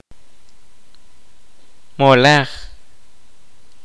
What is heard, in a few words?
"group", kaqchikel